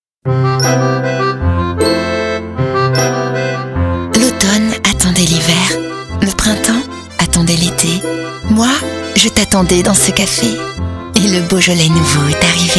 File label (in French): douce poetique; Beaujolais nouveau